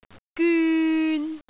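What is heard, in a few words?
"gyun3", Cantonese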